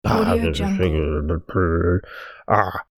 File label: cartoon mumbling